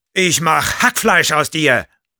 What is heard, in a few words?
Sniper taunts, de